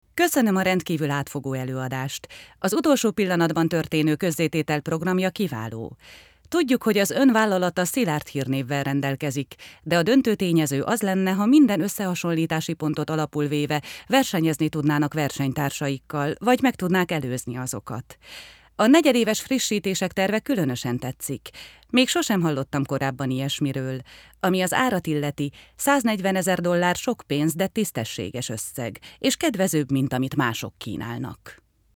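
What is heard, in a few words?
HU F corporate, Hungarian, female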